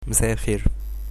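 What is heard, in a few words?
good afternoon, arabic egyptian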